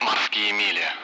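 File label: Speech, Russian; Allied Flight; nautical miles; DCS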